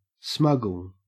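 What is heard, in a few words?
"smuggle", Australian English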